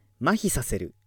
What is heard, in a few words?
male